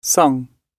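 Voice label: "sung", British English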